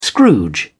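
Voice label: "scrooge", British English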